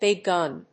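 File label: "big gun", English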